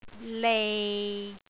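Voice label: "lei6", Cantonese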